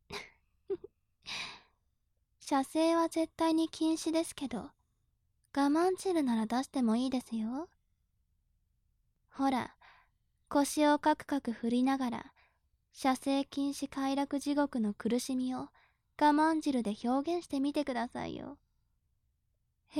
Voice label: 調教中音声, ASMR

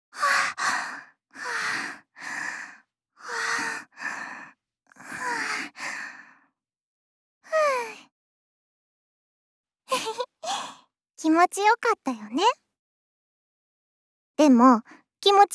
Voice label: いちゃいちゃ甘々なピロートーク; 妹だけバージョン; 妹のみ

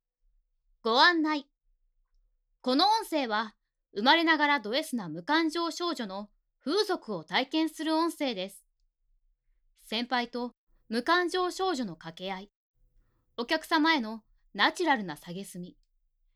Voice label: ご案内

